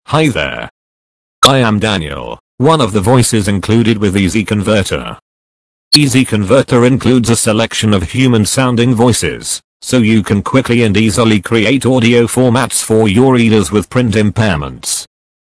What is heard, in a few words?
Expressive; Expressive Daniel; easy converter